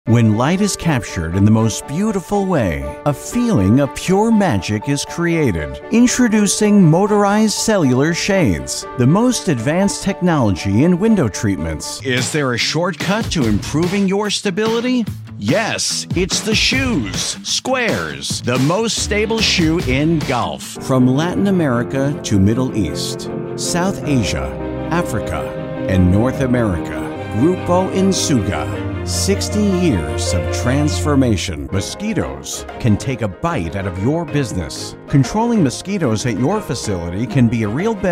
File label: english american corporate videos